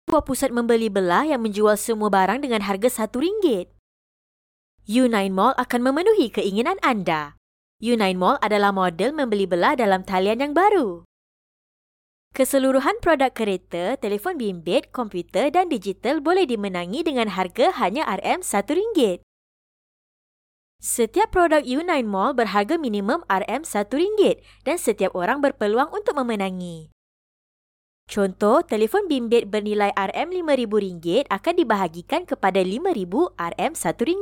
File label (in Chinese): 马来西亚语女, 外语, 小语种, 年轻